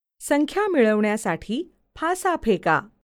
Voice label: Roll Dice VO, Marathi